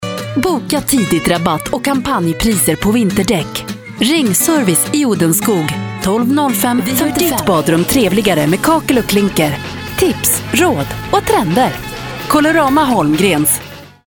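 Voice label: Swedish commercial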